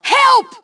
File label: Team Help, Female